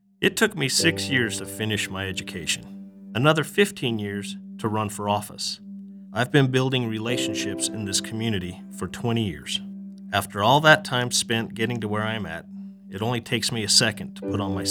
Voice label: Radio; Tooele